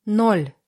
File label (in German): russische zahl 20; Zahlen auf Russisch